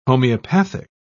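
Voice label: "homeopathic", British English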